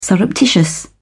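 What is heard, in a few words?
"surreptitious", British English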